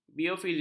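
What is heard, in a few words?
"biofizik", Malay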